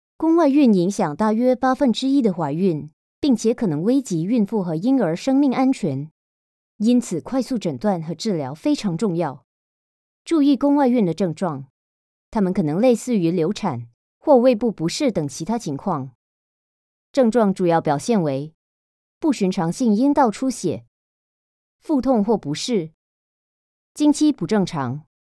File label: chinese mandarin